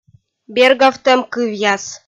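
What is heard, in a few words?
"бергавтӧм кывъяс" Komi, female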